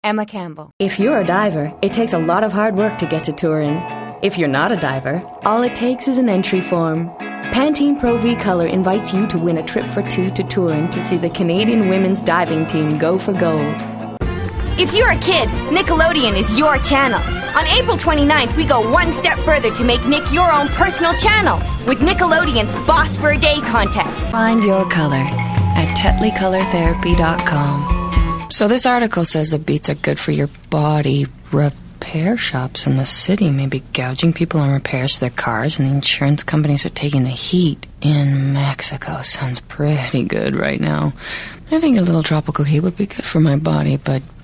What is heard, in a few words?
commercial female